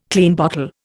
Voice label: "Klein bottle", Indian